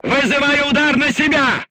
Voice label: voice message art command oneself, crew tanks russian